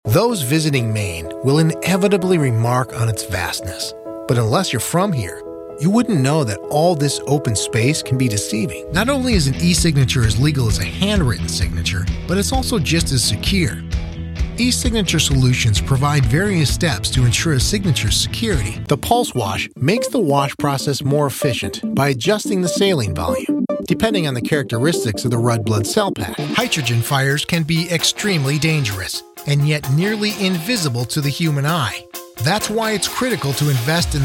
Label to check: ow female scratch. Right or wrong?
Wrong